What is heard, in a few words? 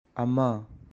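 "Ama" Manipuri; A (one)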